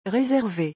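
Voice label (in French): "réserver", French; vocabulaire; le lexique des vacances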